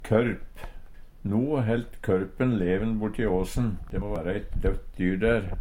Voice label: korp, Numedalsmål; ravn

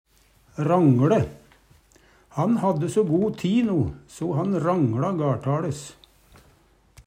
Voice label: rangLe, Numedalsmål; Han hadde so go ti no, so han rangLa gaL'taLes.

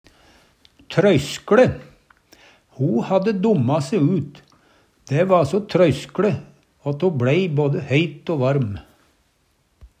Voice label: trøyskLe, Numedalsmål; Ho hadde domma se ut. Dæ va so trøyskLe at ho bLei både heit o varm.

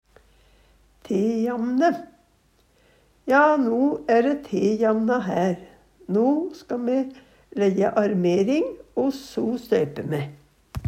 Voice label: tejamne, Numedalsmål; Ja, no æ ræ tejamna hær. No ska me læjje armering, o so støype me.

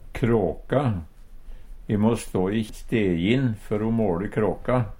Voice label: kraka, Numedalsmål; Substantiv hokjønn

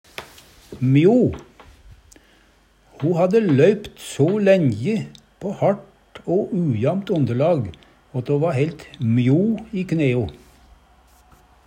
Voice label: mjo, Numedalsmål

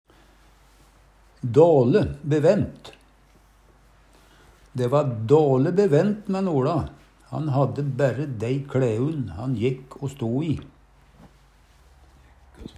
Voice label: "dale bevent", Numedalsmål; Dæ va dåLe bevent mæ'n OLa, han hadde bærre dæi kLæun han jekk o sto i.

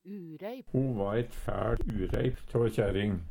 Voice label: ureip, Numedalsmål; Adjektiv

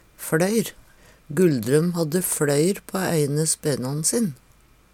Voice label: fLøyr, Numedalsmål; Gulldrøm hadde fLøyr på eine spenan sin.

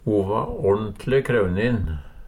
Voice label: kraunin, Numedalsmål; Adjektiv

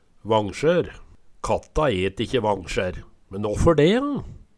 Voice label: vangsjaer, Numedalsmål

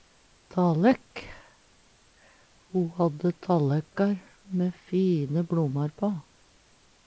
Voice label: talekk, Numedalsmål; Substantiv hankjønn; Hushald, mat, drikke; Ho hadde talekkar mæ fine bLomar på.

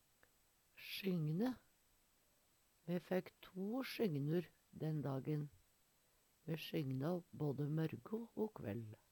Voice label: sjygne, Numedalsmål

